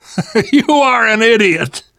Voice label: generic responses, survivor voice; Left 4 Dead 2; left4dead2 dlc3

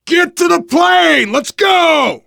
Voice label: arrive plane, voice, biker; Left 4 Dead 2; left4dead2 dlc3; survivor

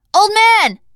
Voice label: name, teen girl; bill

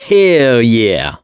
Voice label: "yes", survivor voice, mechanic

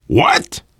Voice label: generic responses, voice, biker

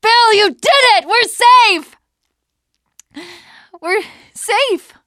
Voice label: teengirl, voice; c6 dlc3 bill dies

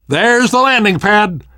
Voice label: world hospital, namvet, survivor voice

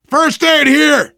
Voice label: spot first aid, voice, biker; Left 4 Dead 2; survivor

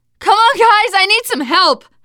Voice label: help, teengirl, voice; player; survivor

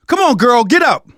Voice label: revive friend loud, survivor voice; manager; Left 4 Dead 2; left4dead2 dlc2

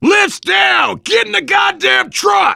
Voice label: finale truck down, voice, biker; Left 4 Dead 2; left4dead2 dlc2; survivor

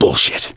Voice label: reaction negative, voice; gambler

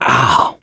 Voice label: going to die, survivor voice mechanic

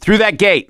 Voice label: misc directional, voice; gambler

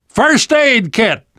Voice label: spot first aid, namvet, survivor voice; Left 4 Dead 2; left4dead2 dlc3

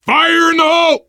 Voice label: grenade, survivor voice, biker; Left 4 Dead 2; left4dead2 dlc3

